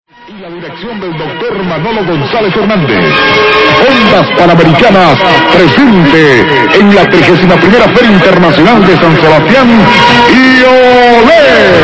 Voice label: Ondas Panamericanas, MW, Local